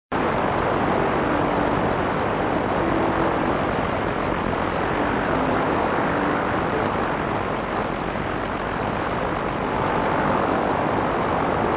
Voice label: 9625 inglese; Radio Japan; GIAPPONE

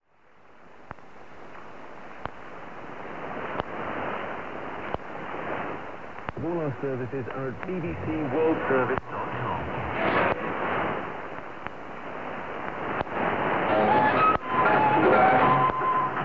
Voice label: 15420 kHz somalo; BBC; FT2000; dipolo

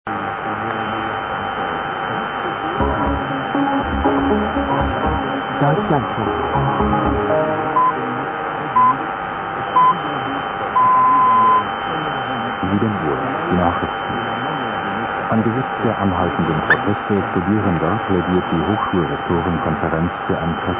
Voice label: 153 kHz, tedesco; Deutschlandfunk

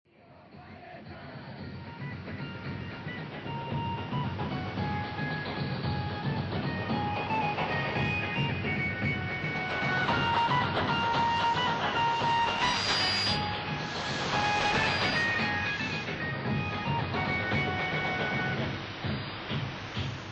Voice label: 9445 KHz inglese; All India Radio; FRG 7; Fribourg

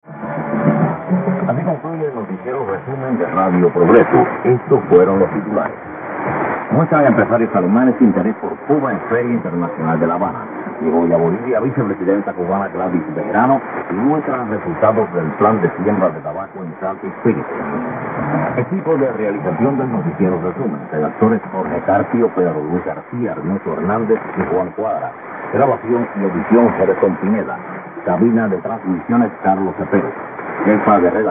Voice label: 4765 spagnolo; Radio Progreso

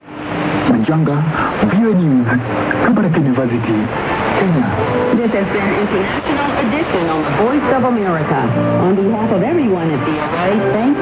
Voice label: 9775 kHz inglese; VOA Botswana; Degen DE 1103; filo 5m indoor; St. Ursen

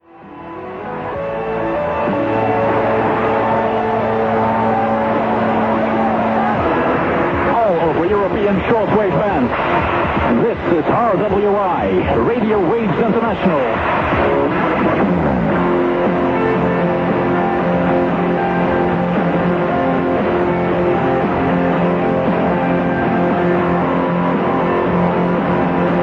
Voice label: 9670 kHz inglese; Radio Waves International; Degen DE 1103; filo 5m indoor; St. Ursen

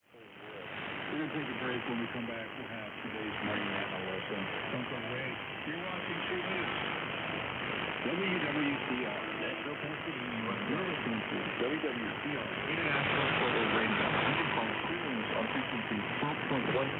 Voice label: 4840 kHz inglese; WWCR Nashville; Perseus; Schwarzsee

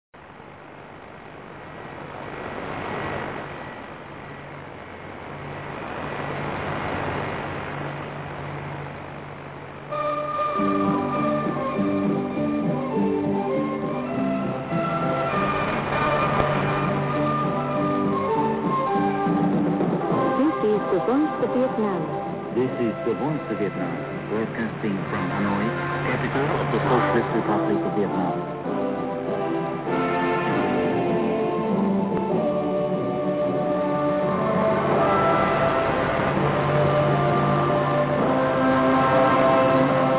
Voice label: 9730 inglese; Voice of Vietnam

